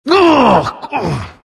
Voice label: anger; skywrath mage